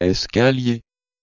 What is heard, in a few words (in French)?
"escalier", French; (scala)